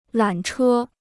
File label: "缆车", Chinese